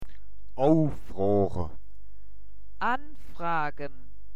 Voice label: oufroache, mundart; woerterbuch

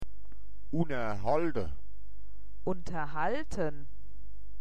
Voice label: "unnerhoalde", Mundart; woerterbuch

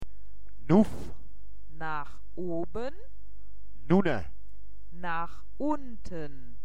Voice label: "owwe-unne", Mundart; woerterbuch

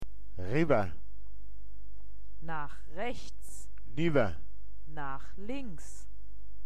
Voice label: riwwer niwwer, mundart; woerterbuch

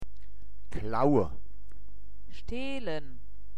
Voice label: "klaue", Mundart; woerterbuch

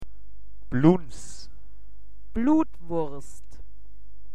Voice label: "bluns", Mundart; woerterbuch

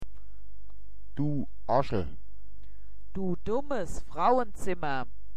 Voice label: orschel, mundart; woerterbuch

